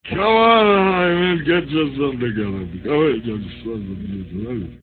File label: nnnngetyaseftgedda, gibbrish; Voice